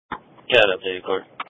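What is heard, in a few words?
Police Patrol (Dispatch)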